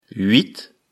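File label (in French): "huit", French; afrikalan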